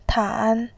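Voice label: "tan", Mandarin Chinese, third tone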